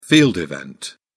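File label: "field event", English, British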